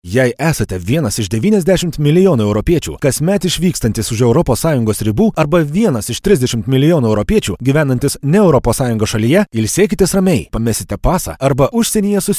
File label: lt corporate